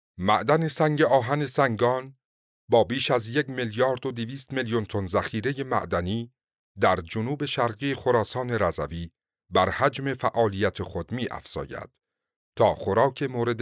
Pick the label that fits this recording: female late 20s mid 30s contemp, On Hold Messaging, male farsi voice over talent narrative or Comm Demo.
male farsi voice over talent narrative